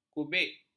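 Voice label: "kubik", Malay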